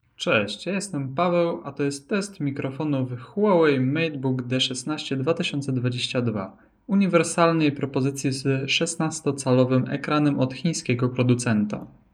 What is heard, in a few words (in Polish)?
test mikrofonow standard; huawei matebook d